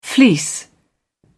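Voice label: "fleece", British English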